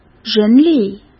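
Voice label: "人力", Mandarin Chinese; hsk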